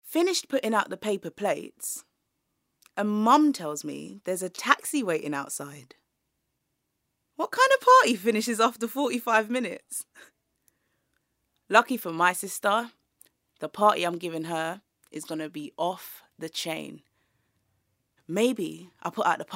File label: Narration